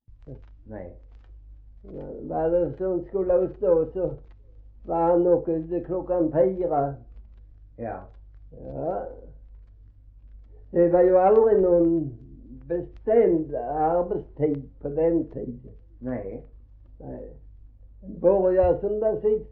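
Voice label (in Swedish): Gråmanstorp Skåne, dialekter svenska sagor; Folkminnen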